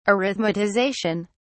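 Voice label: "arithmetization", British English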